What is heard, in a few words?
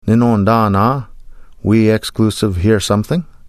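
"Nnoondaanaa", Ojibwe; We hear something (not including you); Nanabush Looses The Meat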